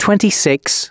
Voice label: announcements male

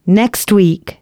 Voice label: female, announcements

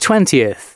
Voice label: announcements male

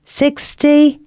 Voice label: announcements female

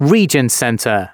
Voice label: announcements male

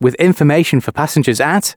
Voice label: announcements, male

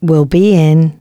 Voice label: announcements, female

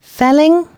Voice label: announcements, female

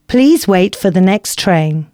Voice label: announcements female

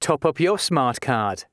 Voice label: top up, announcements male

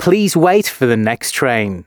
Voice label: announcements, male

